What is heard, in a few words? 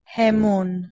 "hemon", Proto-Germanic